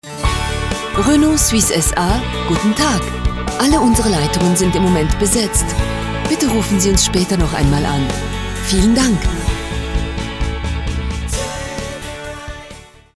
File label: female German IVR; Devine Voices